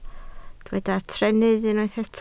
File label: "trennydd", Welsh